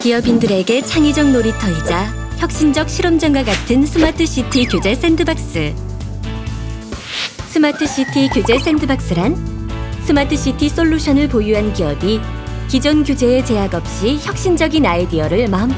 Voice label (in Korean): 미소 띤 차분한 홍보; 샌드박스국가사업